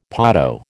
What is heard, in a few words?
"potto", American